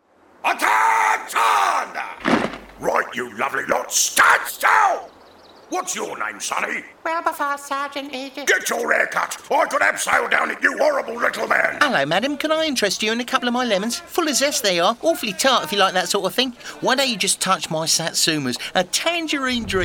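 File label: English UK male character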